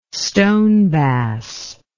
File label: "stone bass", English